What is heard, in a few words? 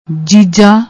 "jija", Punjabi